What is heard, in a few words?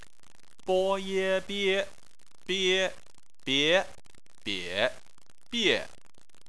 "bie", Chinese